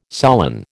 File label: "solen", American